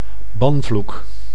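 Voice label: "banvloek", Dutch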